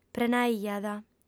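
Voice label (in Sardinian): "prenaiat", female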